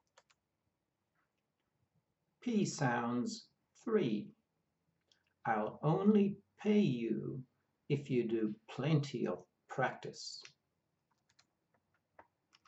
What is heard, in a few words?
P sounds, pronunciation of australian english